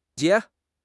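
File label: "xie3", Mandarin Chinese; HSK1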